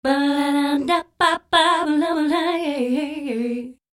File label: voice Stereo Chorus